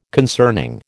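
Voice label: "concerning", American English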